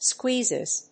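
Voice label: "squeezes", English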